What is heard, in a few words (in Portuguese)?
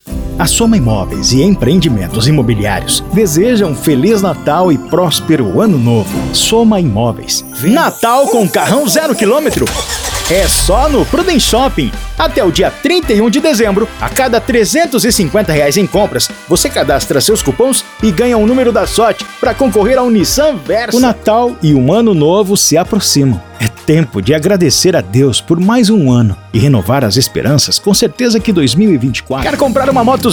Padrão Emotivo